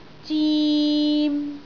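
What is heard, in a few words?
"zim3", Cantonese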